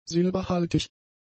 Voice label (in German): "silberhaltig", German; adjectives